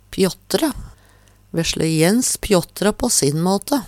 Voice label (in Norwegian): pjåttre, Numedalsmål; Verb; Vesle Jens pjåttra på sin måte.